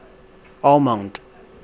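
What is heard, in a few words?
"almond", Chinese, male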